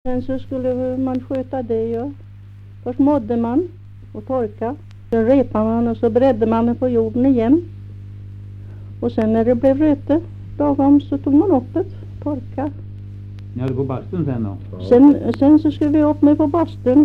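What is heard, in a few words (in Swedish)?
Riala Uppland, dialekter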